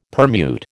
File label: "permute", American